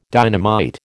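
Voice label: "dynamite", American English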